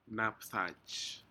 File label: "napdaj", Aneityum; fish